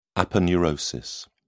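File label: "aponeurosis", British English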